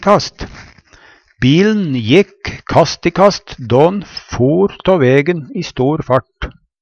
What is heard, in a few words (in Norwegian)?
ga kast i kast, Numedalsmål; Bil'n jekk kast i kast då'n for tå vegen i stor fart.; rulle rundt